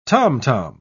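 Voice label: "tomtom", British English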